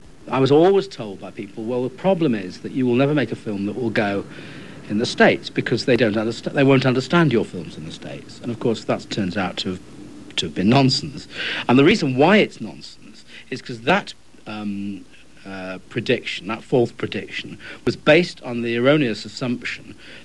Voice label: British (London working class)